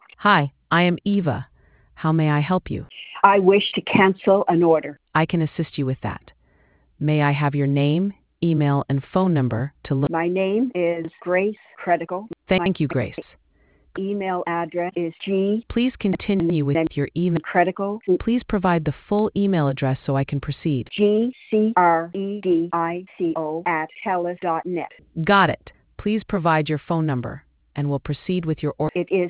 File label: conversation